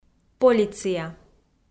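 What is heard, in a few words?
"полиция", Komi, female; kpv audio dict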